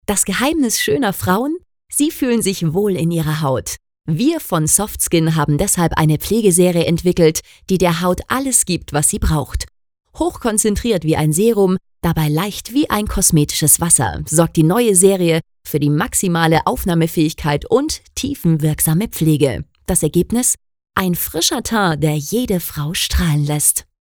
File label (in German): Soft Skin Freundlich